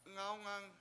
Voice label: "ngAwngang", washo; child